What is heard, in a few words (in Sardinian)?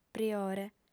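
"priore", Sardinian, female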